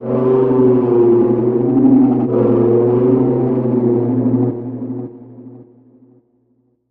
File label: basement voices